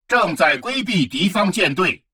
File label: advisor notification evade hostile fleet, chinese; Stellaris